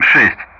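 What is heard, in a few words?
Speech Russian; DCS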